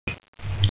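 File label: "shan yang", Mandarin Chinese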